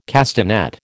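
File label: "castanet", American English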